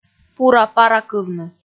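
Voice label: "пура-паракывны", Komi, female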